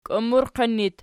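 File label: "kiummurqin" locative, Archi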